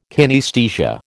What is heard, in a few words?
"kinaesthesia", American